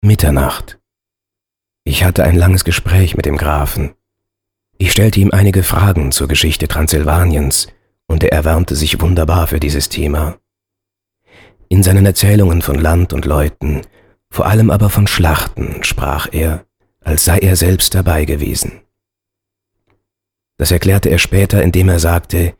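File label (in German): erzaehlung, sprecher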